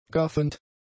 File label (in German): "gaffend", German; adjectives